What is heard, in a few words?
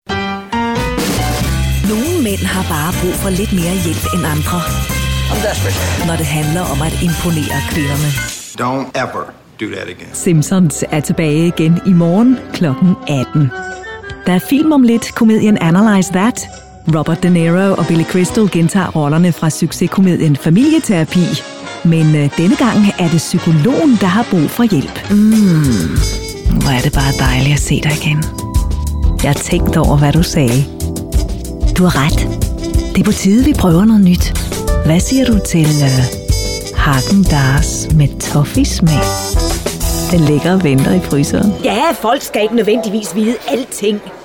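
Danish, Commercial; GoLocalise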